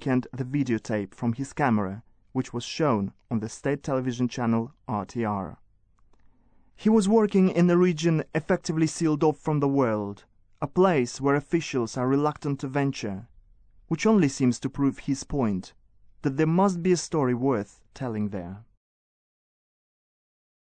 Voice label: Russian Accent